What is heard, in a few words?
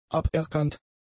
"aberkannt", German; adjectives